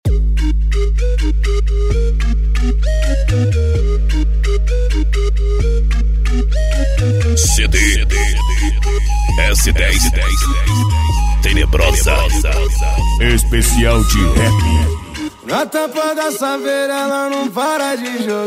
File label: rap